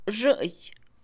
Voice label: Mixe, Mujer; ayer; INALI

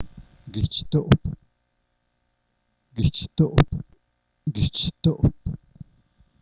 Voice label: cana, Zapoteco San Pablo Villa de Mitla; objetos; Vocabulary; sta maria albarradas

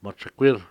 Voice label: "remolino", Cucapá

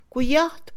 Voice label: Mixe, Mujer; jugar; Emociones; INALI

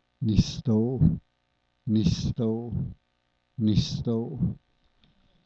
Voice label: mar, Zapoteco San Pablo Villa de Mitla; sustantivos; Vocabulary; sta maria albarradas

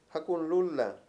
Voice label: "dirección", Mayo; Mundo moderno

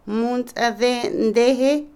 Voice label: agitado, Otomí, mujer; Vocabulary; INALI

